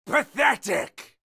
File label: Melee Miniboss Mid Combat Line